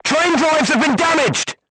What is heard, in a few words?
voice message horizontal aiming drive damaged, crew tanks portuguese